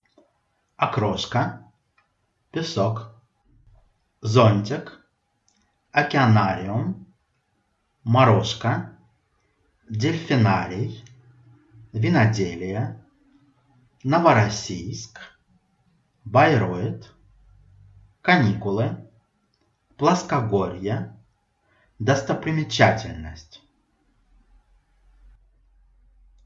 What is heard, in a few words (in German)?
russisch